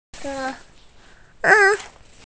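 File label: "che1", Mandarin Chinese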